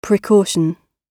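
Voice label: "precaution", British English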